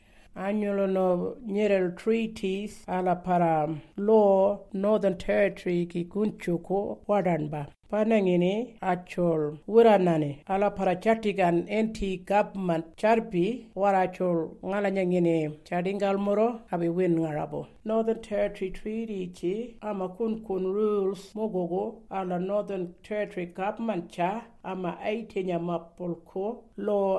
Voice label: Warumungu